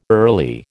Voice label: "early", American